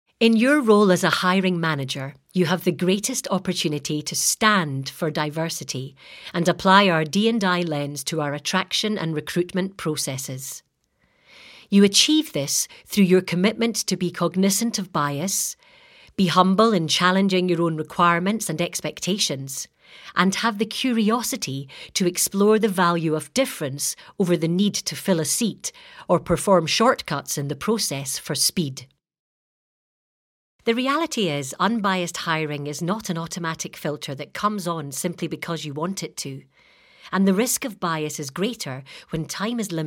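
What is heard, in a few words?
British English, Corporate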